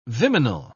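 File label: "viminal", English, British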